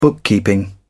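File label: "bookkeeping", British English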